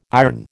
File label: "iron", American